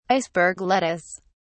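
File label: "iceberg lettuce", British English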